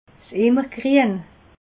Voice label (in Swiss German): "immergrien", alsace